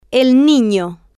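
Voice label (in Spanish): el nino, Spanish, female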